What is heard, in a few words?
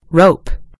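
"rope", British English; gcompris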